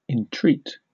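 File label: "entreat", British English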